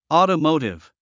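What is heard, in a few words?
"automotive", 英単語 発音; ɔ̀ːtəmóutiv オートモウティブ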